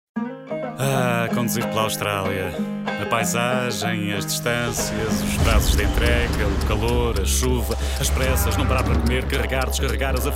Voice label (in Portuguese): Camionistas Da Australia PT